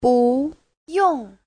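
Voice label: "búyòng", Mandarin Chinese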